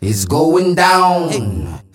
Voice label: Vocals